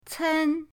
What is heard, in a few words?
"cen1", pinyin